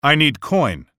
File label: I Need Coin, taunts; Microsoft Studios